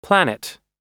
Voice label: "planet", oxford